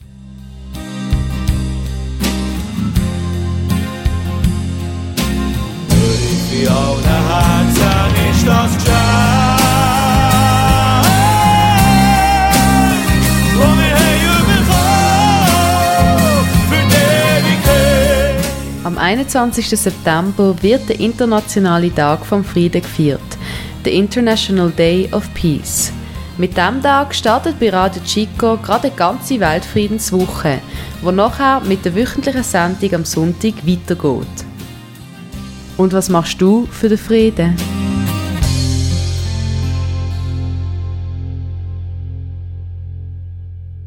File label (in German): Schweizerdeutsch; Weltfriedenswoche